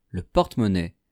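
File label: "le porte monnaie", French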